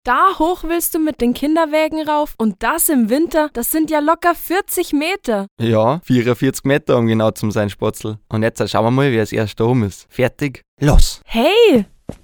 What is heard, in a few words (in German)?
Werbung; Erlebnis Akademie